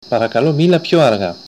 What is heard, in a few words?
speak more slowly, greek; Παρακαλώ μιλάτε πιο αργά; Parakaló miláte pyo argá; Greek (Modern)